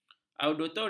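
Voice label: "auditorium", Malay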